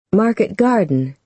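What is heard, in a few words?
"market garden", American English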